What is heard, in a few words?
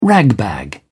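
"ragbag", British English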